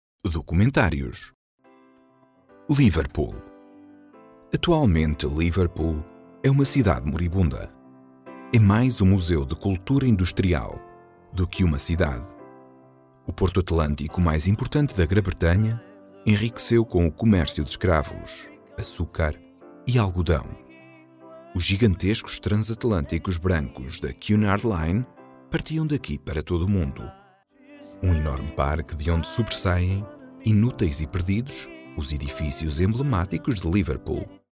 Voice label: male portuguese voice over talent narrative, portugal